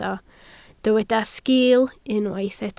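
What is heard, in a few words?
"sgil" Welsh, spoken word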